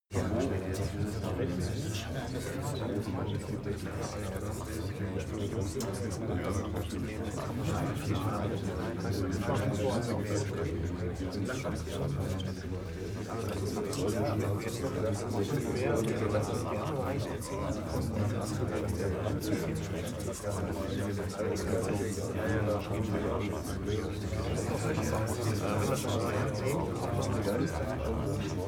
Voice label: talk german neutral quiet long

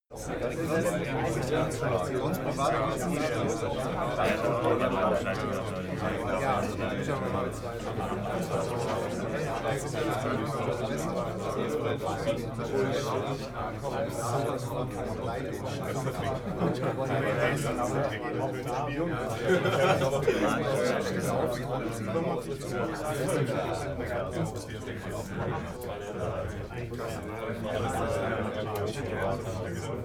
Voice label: talk german neutral long

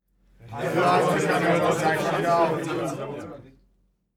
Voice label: talk happy short

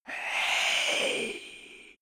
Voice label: male whisper "hey"

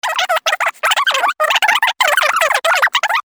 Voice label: high pitched

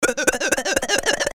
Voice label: funny voice

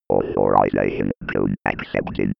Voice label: computer voice authorisation

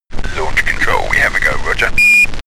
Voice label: astronaut chatter

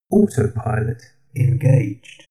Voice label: friendly male system status

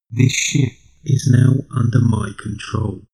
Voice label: shuttle male system status

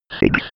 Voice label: robot counting

